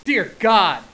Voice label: "dear god", hostage reactions